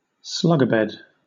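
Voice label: "slugabed", British English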